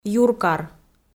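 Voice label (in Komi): "юркар" Komi, female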